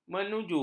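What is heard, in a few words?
"menuju", Malay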